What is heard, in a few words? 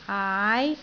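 "aai2", Cantonese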